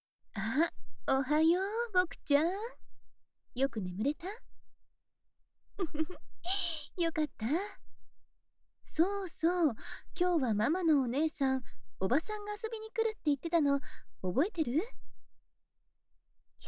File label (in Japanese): オープニング, ASMR